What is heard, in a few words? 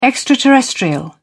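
"extraterrestrial", British English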